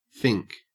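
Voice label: "fink", Australian English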